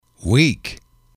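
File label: "weak", English, male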